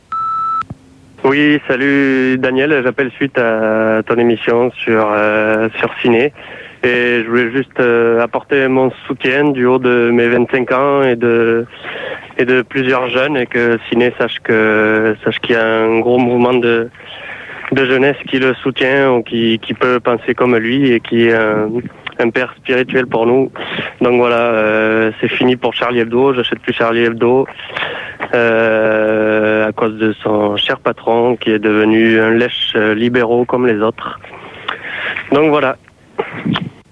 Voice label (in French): repondeur; Soutien a Sine